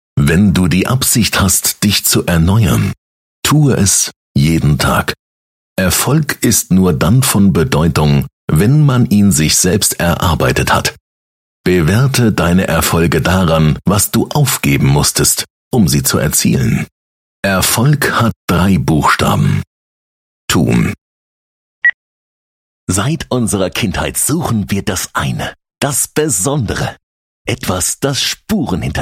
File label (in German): Sprecher Voiceover